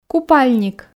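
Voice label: "купальник", Komi, female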